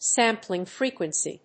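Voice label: "sampling frequency", English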